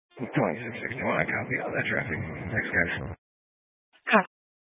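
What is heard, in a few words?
Sheriff Dispatch, Scanner audio recording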